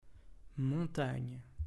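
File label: "montagne", French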